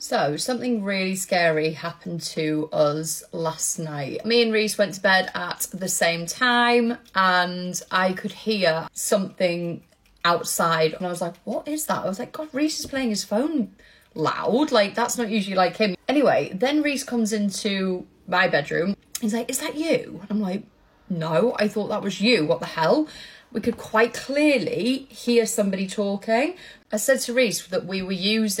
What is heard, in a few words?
WALKIE TALKIES; AMAZON